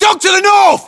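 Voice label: threat dog north, battlechatter, British; english; uk; localized english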